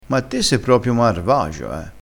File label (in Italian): "marvagio", crespinese